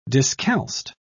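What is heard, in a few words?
"discalced", British English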